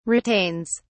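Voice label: "retains", English, British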